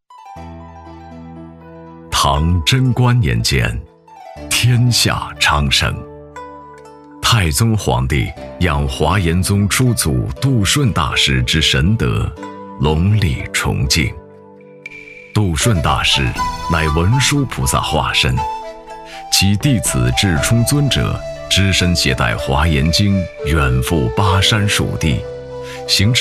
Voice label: 男, 纪录片, 纪录 优美 磁性; 重庆华岩寺; 醇厚磁性; 质感磁性; 纪录片《重庆华岩寺》-纪录 优美 磁性

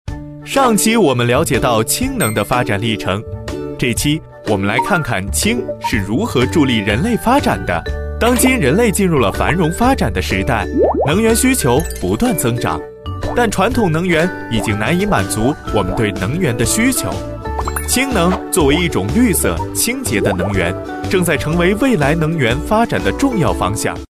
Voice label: 男 MG课件解说 年轻轻松; 质感磁性 年轻时尚

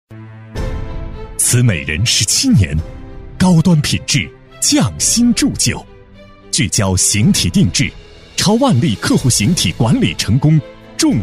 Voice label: 男 品牌宣传片 高端激情; 高端大气; 成熟厚重; 瓷美人形体定制

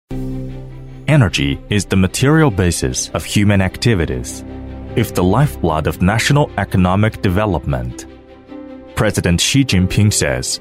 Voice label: 男 英文专题 大气; 中英双语; 大气浑厚; 男386-英文专题-大气

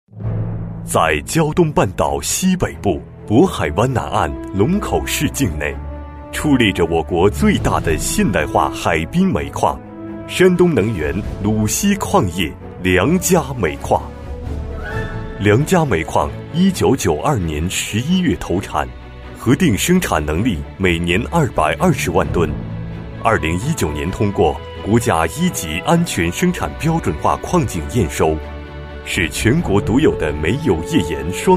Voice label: 男 企业宣传 大气厚重; 大气沉稳; 大气浑厚; 鲁西矿业

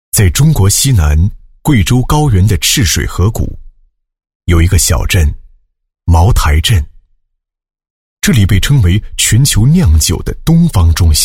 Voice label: 男, 品牌广告, 大气主流 高端大气; 贵州茅台

